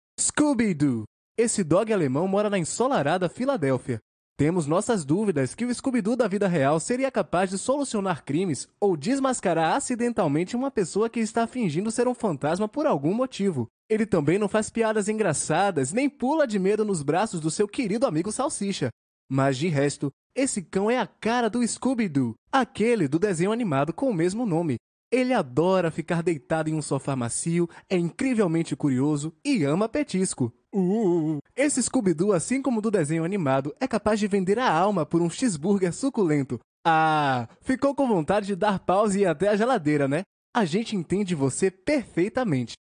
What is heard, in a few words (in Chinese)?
葡萄牙语男 外语 小语种